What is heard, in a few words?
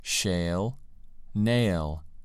"shale" "nail"